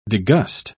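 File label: "degust", British English, dictionary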